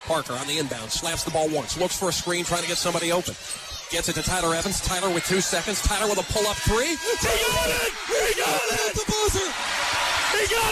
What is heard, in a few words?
national championship radio call; MBB 2009